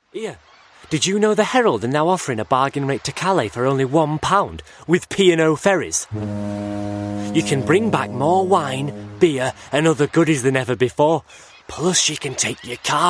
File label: Yorkshire